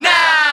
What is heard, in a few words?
now, group of men; Voice